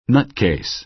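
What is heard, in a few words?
"nutcase", American English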